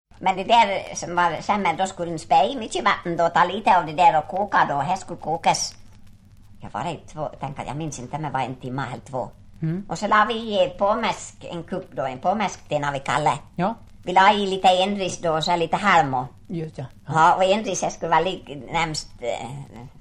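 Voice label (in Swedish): Ål Dalarna, dialekter; Folkminnen; Svenska sagor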